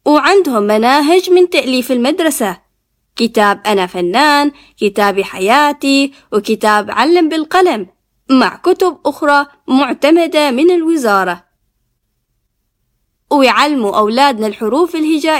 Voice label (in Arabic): عمل صوتي لأحد مدارس تعليم القرآن الكريم الجزء الأول, omani voiceover